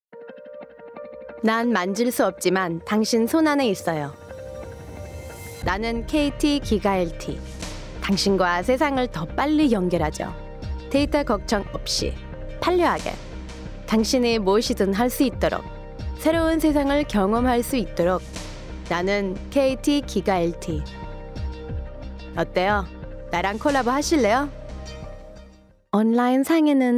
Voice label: Korean VO Montage